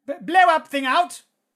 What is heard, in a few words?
battle chatter